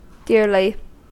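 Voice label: "dearly", US English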